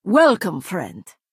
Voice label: Nord female "hello"

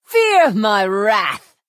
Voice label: Imperial female taunt

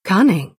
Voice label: Altmer female nice play

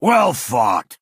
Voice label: male good game

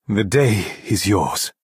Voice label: Altmer male concede

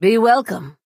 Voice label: Redguard female "hello"

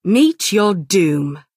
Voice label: Dunmer female taunt

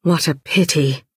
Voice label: Dunmer female sorry

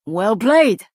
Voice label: Nord female nice play

